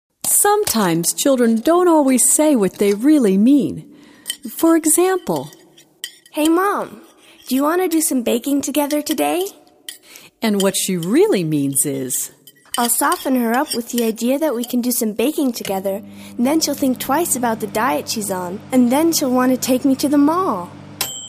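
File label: US English, Schauspiel Freundlich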